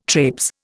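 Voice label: "trapes", Indian English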